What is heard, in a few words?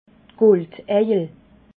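"guldeiel", Alsatian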